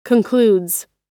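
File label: "concludes", US English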